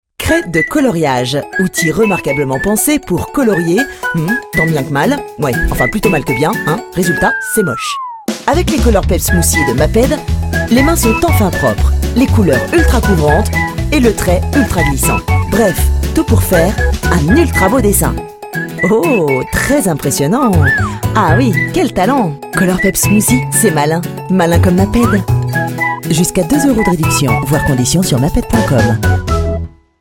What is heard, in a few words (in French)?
Pub TV NATIONALE Jouée; Maped Smoothie